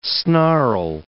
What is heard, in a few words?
"snarl", English